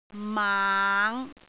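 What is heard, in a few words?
"maang5", Cantonese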